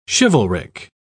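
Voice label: "chivalric", English, British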